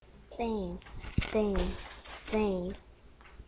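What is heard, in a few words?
"ding", spoken word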